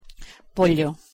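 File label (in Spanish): "pollo", Spanish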